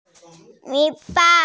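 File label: "tail", hearing impaired; wei3ba5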